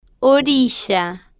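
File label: "orilla", Spanish, female, slow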